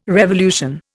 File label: "revolution", Indian